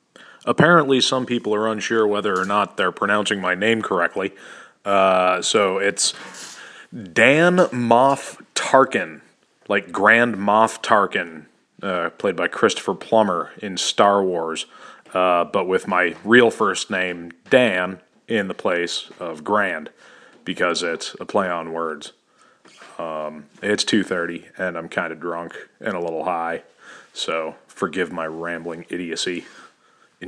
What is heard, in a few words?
pronounciation guide